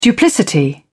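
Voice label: "duplicity", British English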